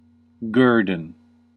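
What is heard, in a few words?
"guerdon" US English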